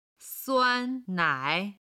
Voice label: "suan1nai3", chinese

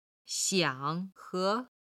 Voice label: "xiang3 he2", Chinese

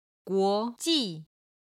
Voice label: "guo2 ji4", Chinese

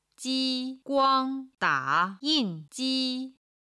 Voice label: "ji1 guang1 da3 yin4 ji1", chinese

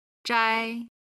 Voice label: "zhai", Chinese

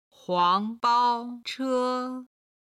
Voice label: "huang2bao1che1", Chinese

